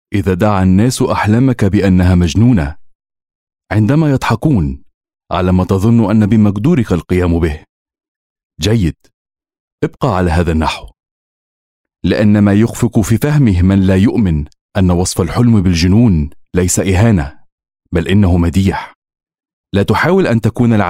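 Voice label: Arabic Commercial Ad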